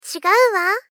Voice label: Gentle Deny "Chigau wa"